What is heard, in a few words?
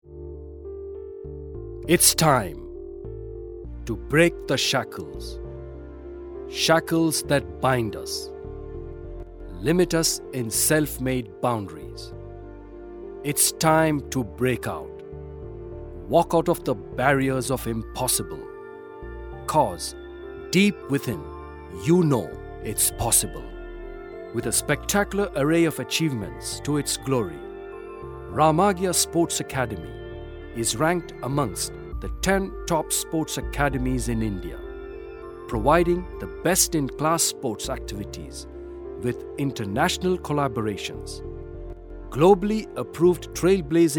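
Corporate English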